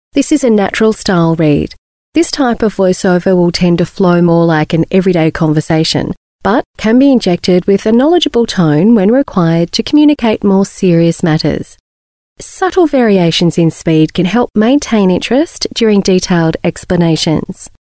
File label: on hold messages female